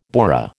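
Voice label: "bourrée", American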